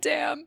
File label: Pathetic Damn